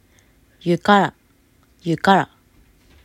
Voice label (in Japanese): アイヌ語音声; hokkaido